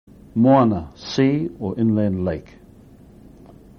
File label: moana, maori words